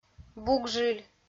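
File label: "бугжыль", Komi, female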